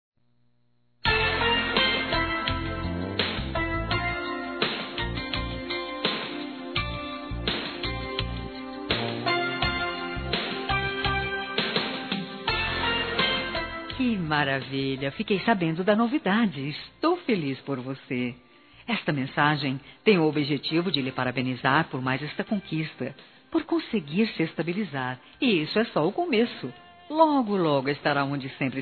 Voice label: BOA SORTE NOVO EMPREGO Feminino; Telemensagens; Telemensagens 2017

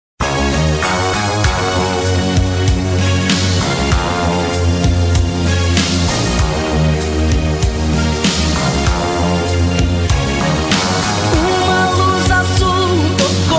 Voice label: ROM MEU CORACAO AINDA QUER VOCE MASC; Telemensagens; Pedidos

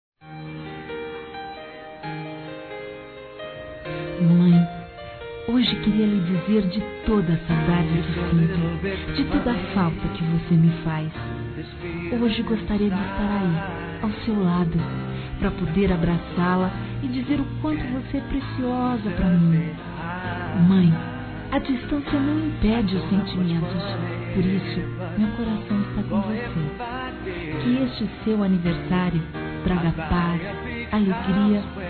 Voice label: Mãe Distante Feminino; Aniversários; Aniv Familiar

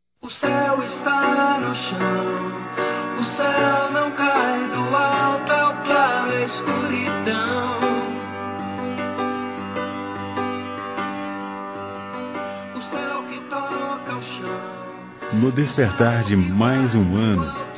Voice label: ANO NOVO P FILHA, masc; Telemensagens; Natal e Ano novo

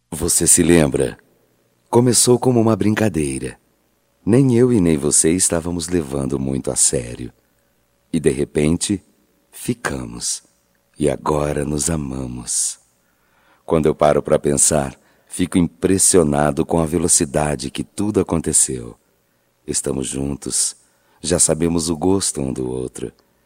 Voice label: Romântica Masculino, Só Voz; Telemensagens; Telemensagens 2017

